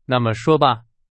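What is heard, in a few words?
Chinese, example sentence; hsk2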